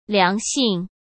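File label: "良性", Mandarin Chinese; chinese-flashcards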